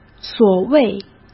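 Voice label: "所谓", Mandarin Chinese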